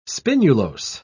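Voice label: "spinulose", British English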